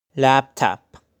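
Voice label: "Laptop", pronunciation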